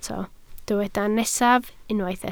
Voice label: "nesaf", Welsh

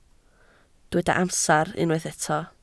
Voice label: "amser", Welsh

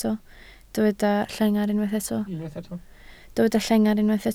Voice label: "llengar", Welsh

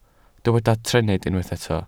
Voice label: "trennydd", Welsh

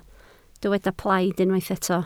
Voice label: "plaid", Welsh

